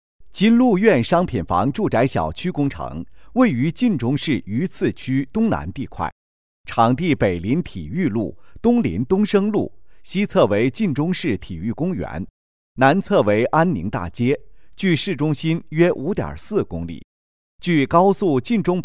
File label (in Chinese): 男 多媒体PPT 商品房工程解说